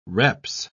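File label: "reps", British English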